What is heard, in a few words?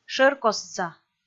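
"шӧркостса", Komi, female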